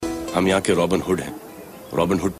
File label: Dialog; Dabangg